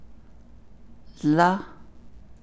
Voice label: navajo particle for content questions